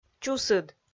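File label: "чусыд" Komi, female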